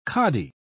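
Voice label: "kadi", British English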